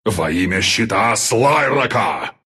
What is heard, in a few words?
drag attack, ru